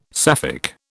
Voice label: "Sapphic", British